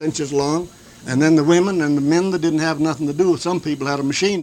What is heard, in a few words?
Oral history with 85 year old white male Montrose Colorado, american english dialect recordings